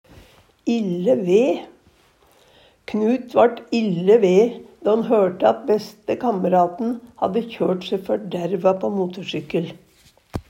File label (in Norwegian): ille ve, Numedalsmål